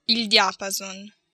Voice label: "diapason", Italian